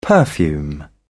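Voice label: "perfume", British English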